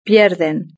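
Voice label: "pierden" Spanish; verb-conjugator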